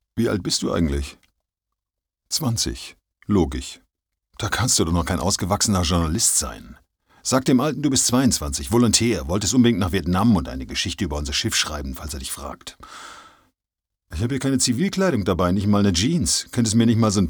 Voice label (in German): dialog